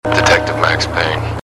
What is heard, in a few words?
Voicemail; Max Payne